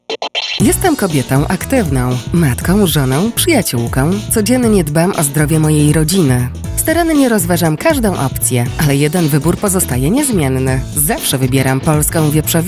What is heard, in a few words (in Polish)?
Spot radiowy Wieprzowina